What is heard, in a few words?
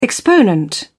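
"exponent", English, British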